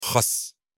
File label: lettuce in arabic